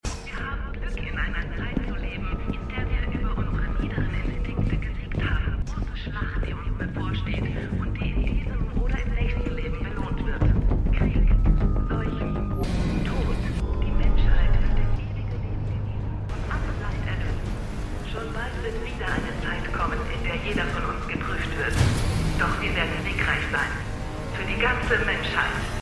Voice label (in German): Durchsage Conductorin; "Was du mitnimmst" ("What You Take with You"); The 100